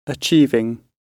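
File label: "achieving", British English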